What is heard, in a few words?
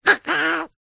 cartoon duck says uh oh; zapsplat